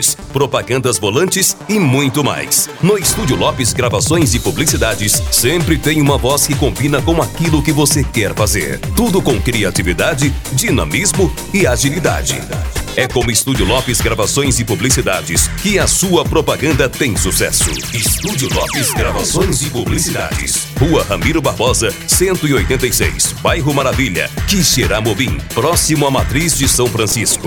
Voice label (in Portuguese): Spot Institucional; Studio Loppes